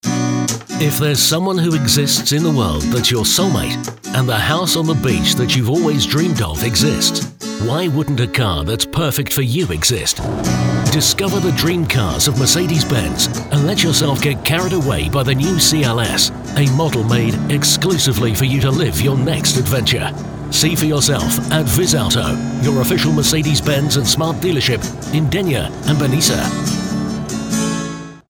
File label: Car Commercial